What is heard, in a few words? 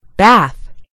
"bath", en GB; gcompris